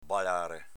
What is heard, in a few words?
"balare", dialetto veneto